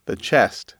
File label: "chest", English